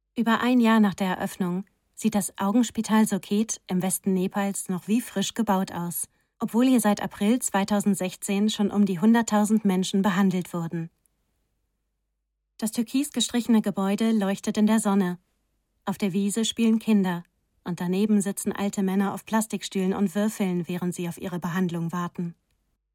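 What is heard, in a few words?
German, Narrative; GoLocalise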